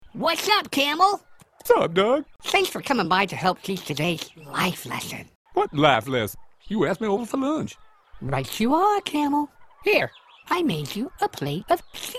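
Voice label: Cartoon Videogame, voice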